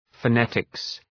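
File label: "phonetics", English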